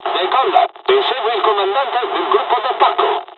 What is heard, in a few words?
second wave takeoff, dialogs italian; War Thunder